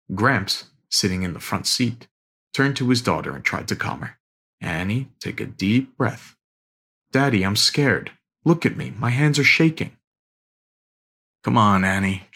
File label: audiobook; adult books